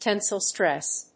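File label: "Tensile stress", English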